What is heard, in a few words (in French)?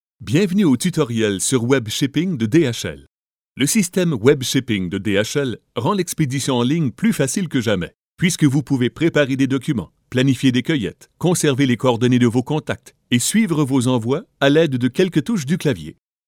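French, male, narration; voice demos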